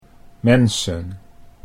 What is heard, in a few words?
"mensen", Dutch